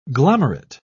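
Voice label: "glomerate", English, British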